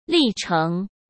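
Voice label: "历程", Mandarin Chinese; hsk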